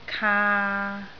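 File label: "kaa3", Cantonese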